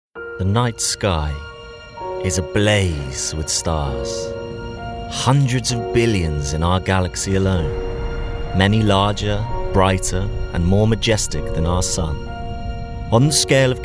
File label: documentary